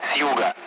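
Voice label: Speech Sound Russian Common Allied Flight; DCS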